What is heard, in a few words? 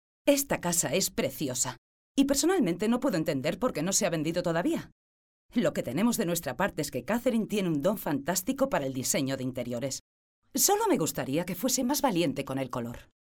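spanish female narration; voice demos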